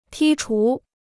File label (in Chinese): "剔除", Chinese